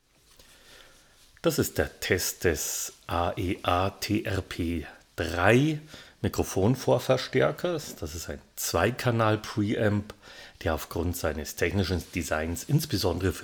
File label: AEA TRP3 Lewitt LCT640TS Voice